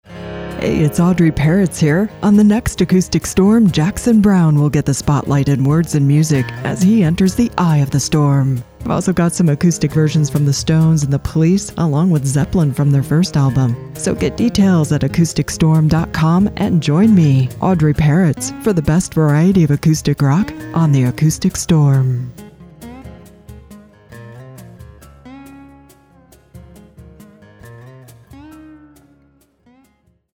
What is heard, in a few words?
PROMO; Acoustic Storm; USRN-FM; kkcl-am